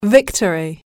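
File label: "victory", English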